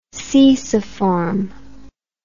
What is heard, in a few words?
"ceciform", English